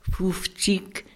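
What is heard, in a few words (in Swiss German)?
"fufzig", Alsatian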